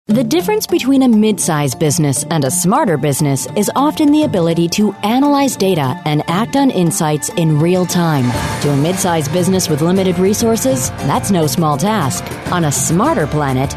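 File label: English, American, female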